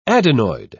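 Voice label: "adenoid", British English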